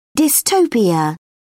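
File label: "dystopia", British English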